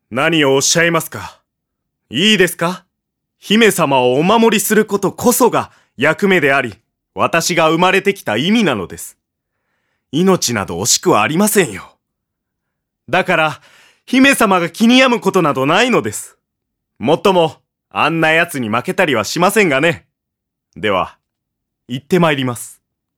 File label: male voice; セリフ